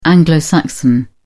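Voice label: "anglo-saxon", British English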